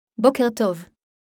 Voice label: Hebrew Good morning; Greetings